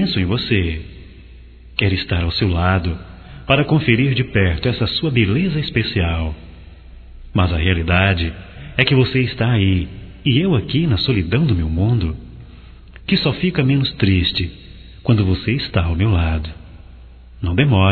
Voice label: BOA NOITE ROMâNTICA, masc; Bom dia, tarde e noite; Telemensagens 2017

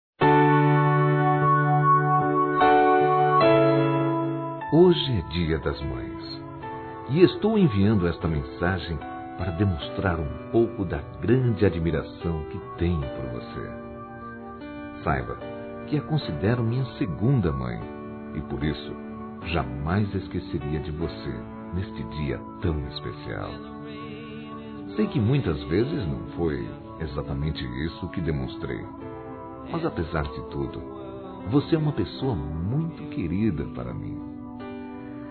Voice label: Madrasta, Masc; Dia das Mães; Telemensagens 2017

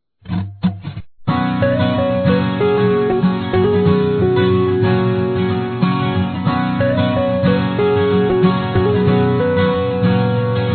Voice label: PADRASTO F, Telemensagens, Aniversários, Fem; Aniv Familiar

